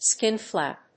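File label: "skin flap", English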